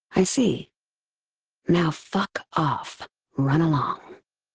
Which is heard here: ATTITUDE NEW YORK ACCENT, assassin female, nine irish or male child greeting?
assassin female